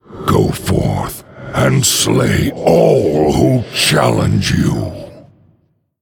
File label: Male Undead